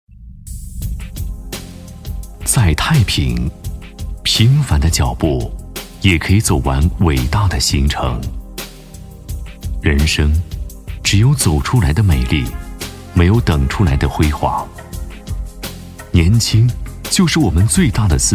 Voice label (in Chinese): 男 广告; 中国太平保险